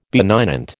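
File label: "benignant", American English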